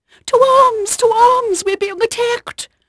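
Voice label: English female, voice; Pirates Of The Caribbean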